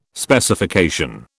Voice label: "Specification", British

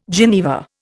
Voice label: "geneva", Indian

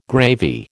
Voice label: "gravy", American

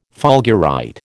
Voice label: "fulgurite", American

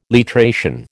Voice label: "literation", American

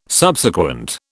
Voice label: "Subsequent", British

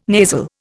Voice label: "nasal", Indian

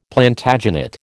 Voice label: "Plantagenet", American

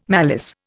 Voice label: "malice", Indian

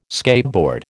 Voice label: "skateboard", American

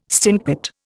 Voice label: "stinkpot", Indian

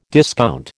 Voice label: "discount", American

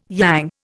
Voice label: "yang", Indian

